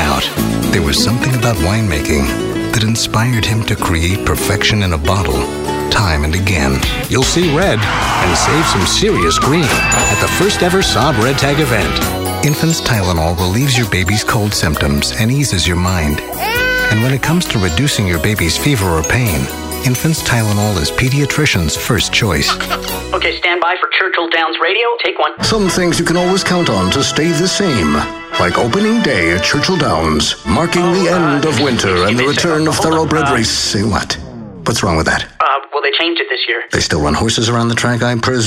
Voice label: Comm, real people male